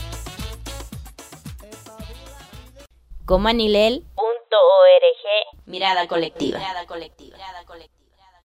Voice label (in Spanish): SPOT La radio; RADIO KOMAN